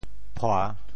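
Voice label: "phua3", Teochew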